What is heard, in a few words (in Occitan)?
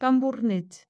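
"tambornet", Gascon; Prêts pour l’Òc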